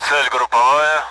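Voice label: Speech, Russian, AWACS; target group; DCS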